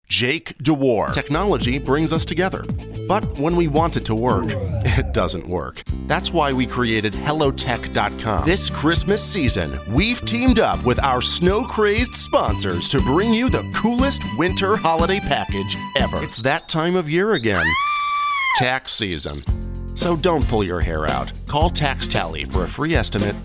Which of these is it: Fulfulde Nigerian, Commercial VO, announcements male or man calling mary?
Commercial VO